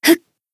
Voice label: Battle Shout; Atsuko